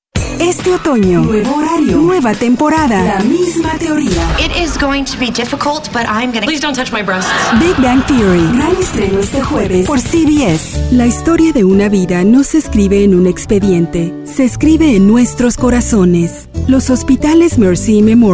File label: Commercials